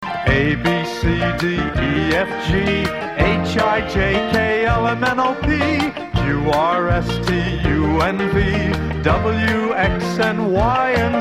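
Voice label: ABC, American English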